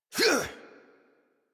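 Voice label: horse mount adult, English; Warframe; Duviri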